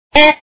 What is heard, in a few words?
"e" sound